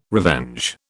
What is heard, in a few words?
"revenge", British